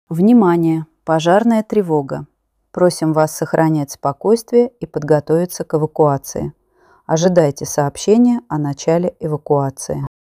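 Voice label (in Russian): оповещение поэтапная эвакуация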